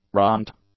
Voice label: "runt", English; nouns